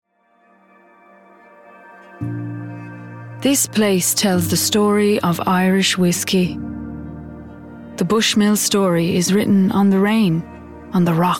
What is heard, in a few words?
Commercial